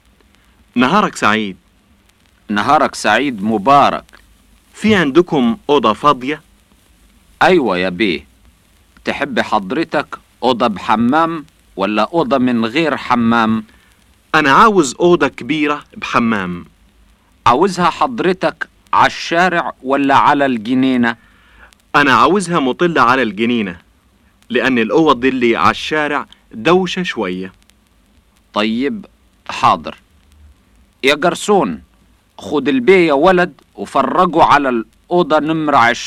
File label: egyptian dialect